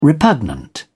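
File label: "repugnant", British English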